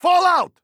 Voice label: radio lets go; Counter Strike; CS:GO; phoenix coop